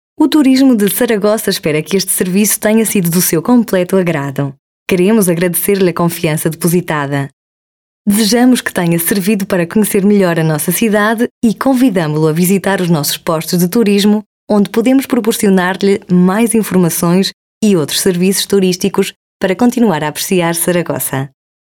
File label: Narration European Portuguese Female